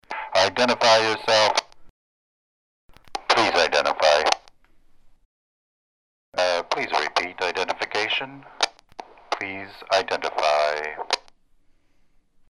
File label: walkie talkie vox; Identify